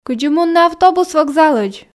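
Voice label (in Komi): кыдзи мунны автобус вокзалӧдз, Komi, female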